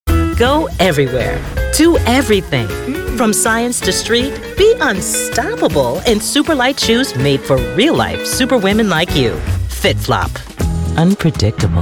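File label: commercial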